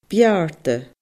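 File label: "bearrta", Scottish Gaelic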